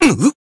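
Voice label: Voice damaged, Japanese; claude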